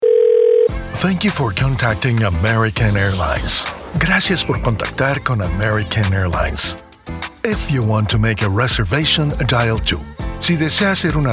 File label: bilingual; Foreign Languages